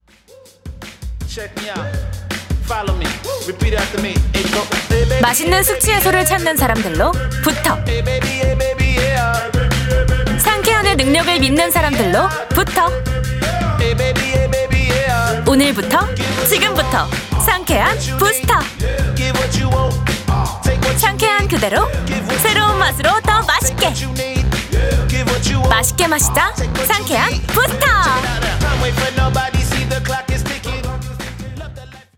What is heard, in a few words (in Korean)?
자신감 있고 트렌디한 톤; 상쾌환